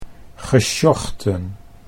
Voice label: "gesjochten", Dutch

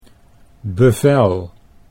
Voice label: "bevel", Dutch

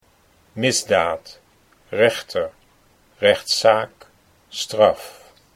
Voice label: "misdaad rechter", Dutch

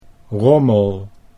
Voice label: "rommel", Dutch

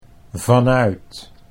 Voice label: "vanuit", Dutch

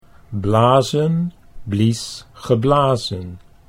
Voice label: blazen blies geblazen, Dutch

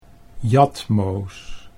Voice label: "jatmoos", Dutch

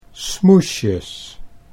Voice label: "smoesjes", Dutch

